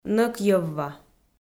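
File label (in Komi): "нӧкйӧвва", Komi, female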